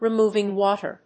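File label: "REMOVING WATER", English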